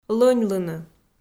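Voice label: "лоьньлыны" Komi, female; kpv audio dict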